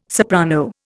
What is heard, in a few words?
"soprano", Indian